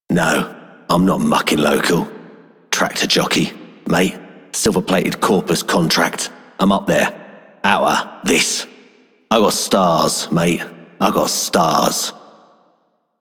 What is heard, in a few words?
Male Pilots, Male One, en; Dialog; Warframe; Solaris Venus